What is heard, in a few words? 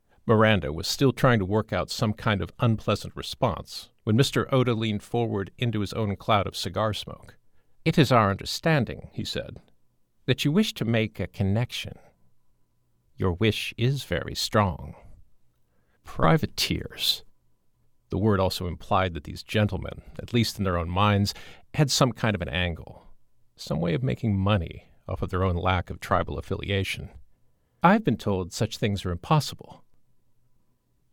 Sci-fi - Male-Female Dialogue